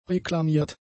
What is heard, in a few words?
"reklamiert", German; adjectives